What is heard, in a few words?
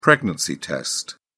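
"pregnancy test", English, British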